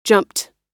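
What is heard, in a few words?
"jumped", American English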